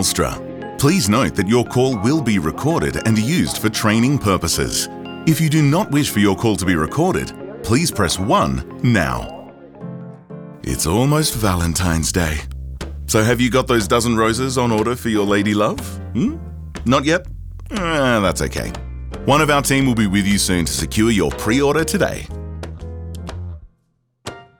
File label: IVR Phone on Hold